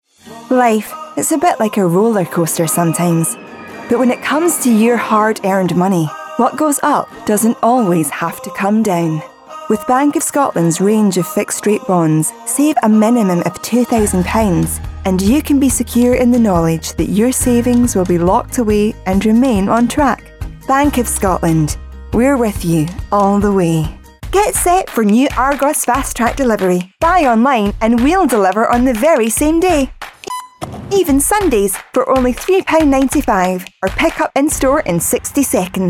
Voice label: Commercial

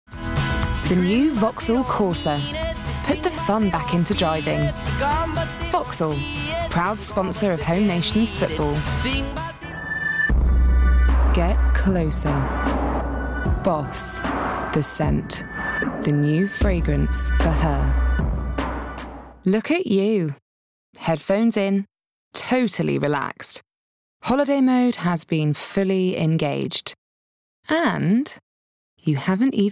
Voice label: Commercial

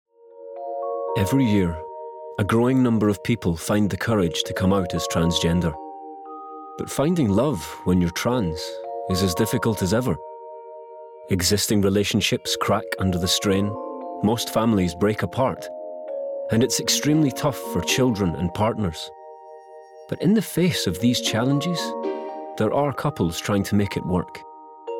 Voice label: Documentary

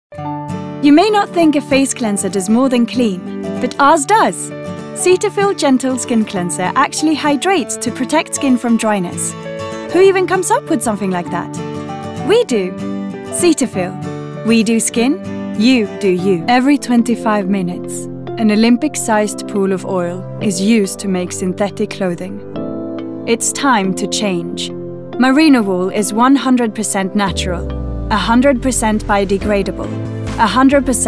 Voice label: Commercial

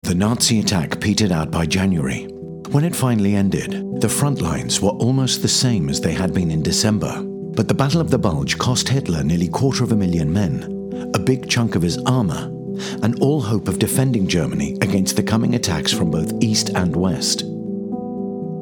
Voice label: Documentary

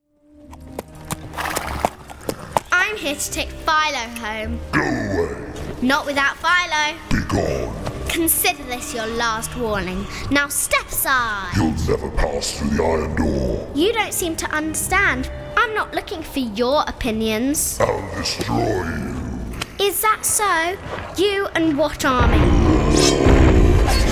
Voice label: Animation

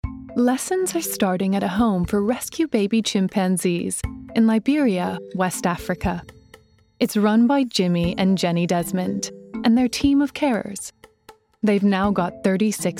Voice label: Documentary

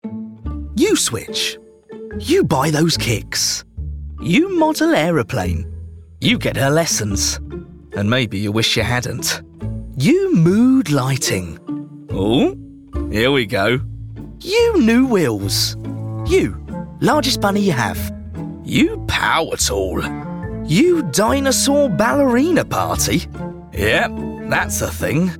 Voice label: commercials

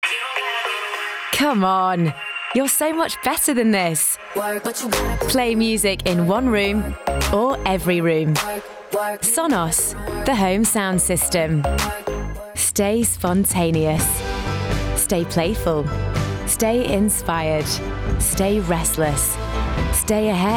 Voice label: Commercial

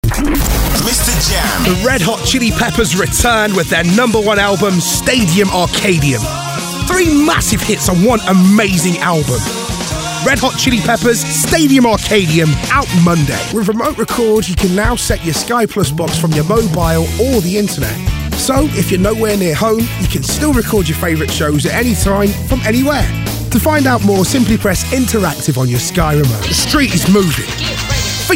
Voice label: COMMERCIALS

